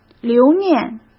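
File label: "留念", Mandarin Chinese; chinese-flashcards; hsk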